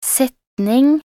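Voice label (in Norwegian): "setning", Norwegian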